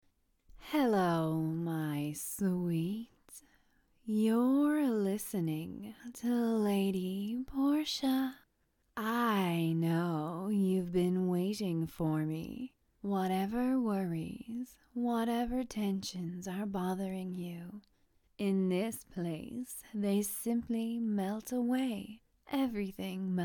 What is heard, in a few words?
Mommy Domme Comforts You, F4A, Hypnosis, Erotic Hypnosis, Comfort; Sensation; Touching